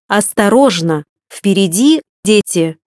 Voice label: Children, RU voice female; MioMap C220; iGO831